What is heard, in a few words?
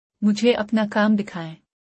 Show me your work, urdu; Time and Routine